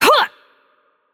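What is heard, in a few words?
Attack Heavy, Russian; bloom minigame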